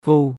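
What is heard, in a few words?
"វូ" Khmer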